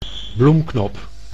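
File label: "bloemknop", Dutch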